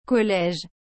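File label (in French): "college", French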